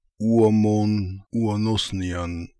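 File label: "Ooamonooanosnian", pronunciations; Fourth Way; Beelzebub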